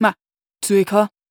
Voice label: neutral short

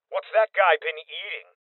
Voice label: Male Young

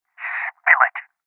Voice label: Voices Interrogate Male

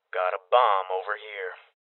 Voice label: Voices Bomb Spotted Male Young; rebel cops 2019 gamerip

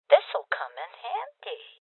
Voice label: Voices Loot Collect Female Old; rebel cops 2019 gamerip

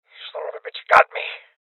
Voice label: Voices Injury Male Old; rebel cops 2019 gamerip

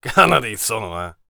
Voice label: VO warrior conversation emotion joking

